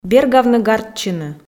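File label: "бергавны-гартчыны" Komi, female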